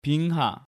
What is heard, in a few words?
"Bingha", Korean